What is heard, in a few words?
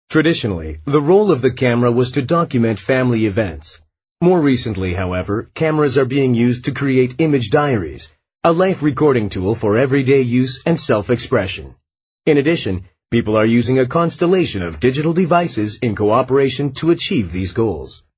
American English, M